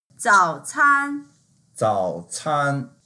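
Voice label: "zao3can1", Mandarin Chinese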